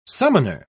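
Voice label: "summoner", British English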